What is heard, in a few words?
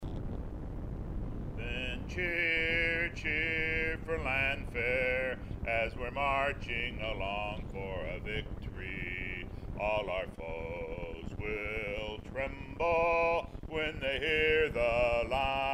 Microphone